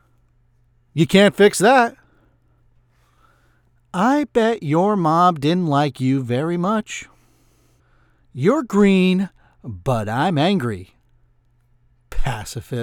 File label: Recruit Male